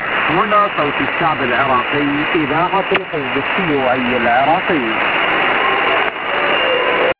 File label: Voice Iraqi People, clandestine